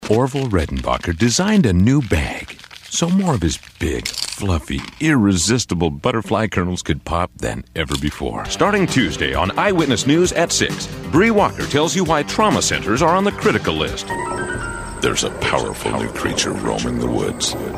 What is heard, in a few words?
Commercial